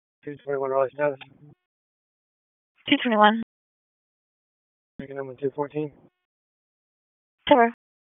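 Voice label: Raleigh Police Northeast District Dispatch, p25; wake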